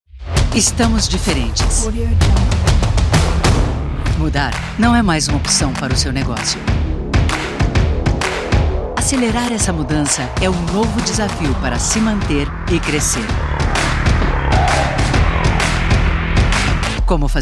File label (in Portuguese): Instituc Energico; Deloitte